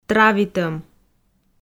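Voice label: "травитӧм", Komi, female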